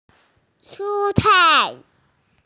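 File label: "shu1cai4", Chinese, F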